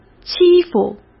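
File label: "欺负", Mandarin Chinese; chinese flashcards; hsk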